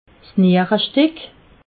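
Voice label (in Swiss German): "nierestick", Alsatian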